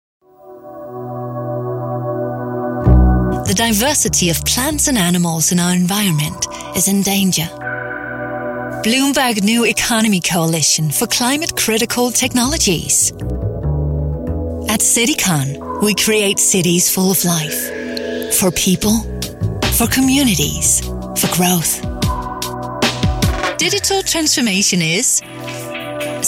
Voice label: danish female voice corporate

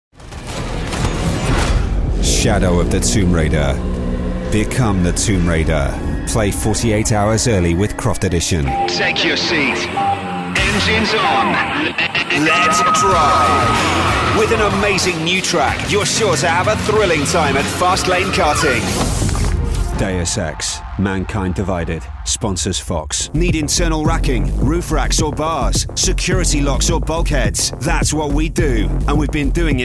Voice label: deep and moody

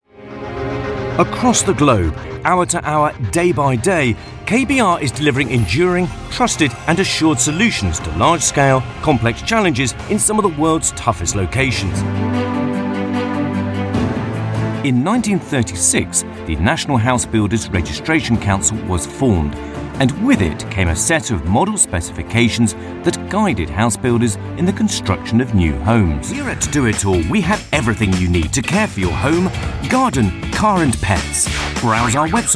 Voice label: corporate

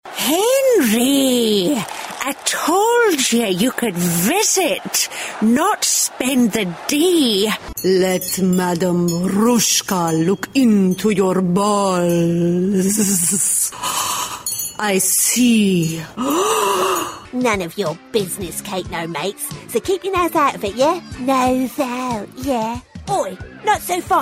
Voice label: character

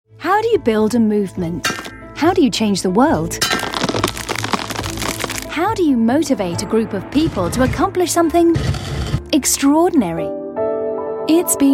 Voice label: corporate narration

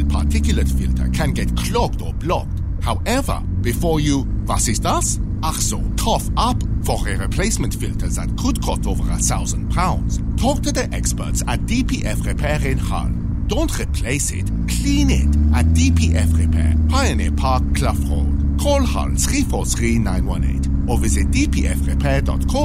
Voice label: german parody conversational

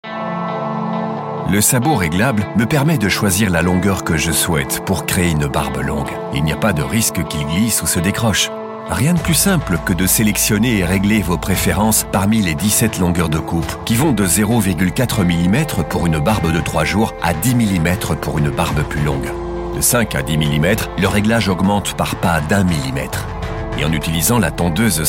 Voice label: belgian french voiceover belgian french explainer

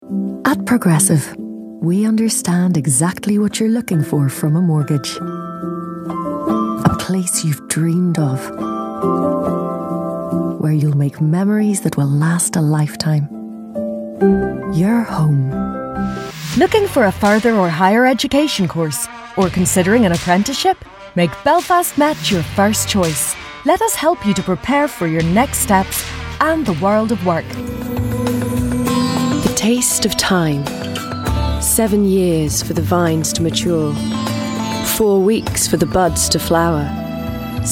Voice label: irish voice commercial

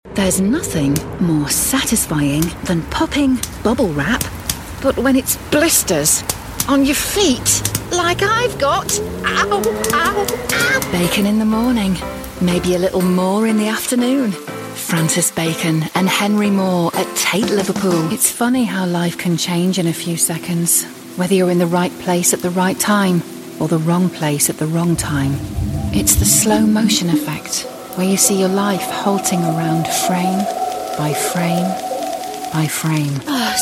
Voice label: commercial

